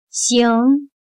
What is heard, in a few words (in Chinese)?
"行", chino